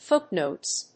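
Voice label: "foot-notes", English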